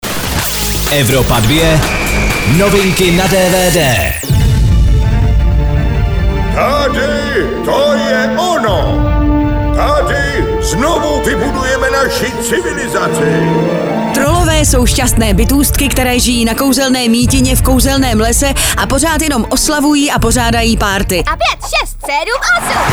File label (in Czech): dvd news; evropa2; trollove